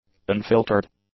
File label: "unfiltered", english; adjectives